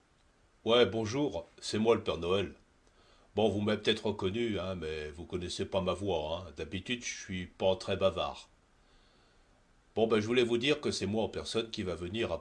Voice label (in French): video message pere noel